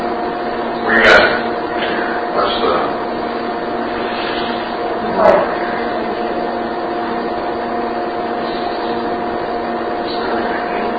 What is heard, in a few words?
Unexplained Female Voice (Love Me)